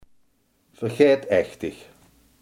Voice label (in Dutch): "vergeetachtig", limburgse